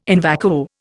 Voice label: "in vacuo", Indian